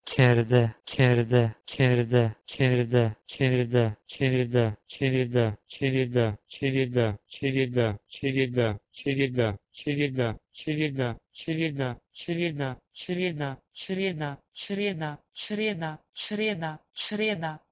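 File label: Proto-Indo-European kerdhe to Slovenian creda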